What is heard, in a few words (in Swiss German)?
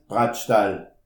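"bretteschdel", Alsatian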